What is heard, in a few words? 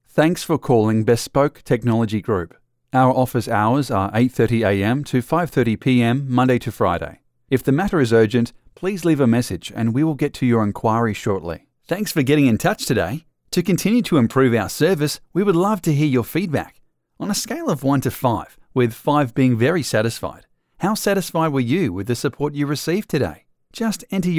english australian ivr